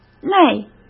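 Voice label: "内", Mandarin Chinese; chinese-flashcards; hsk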